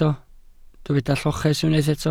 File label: "lloches", Welsh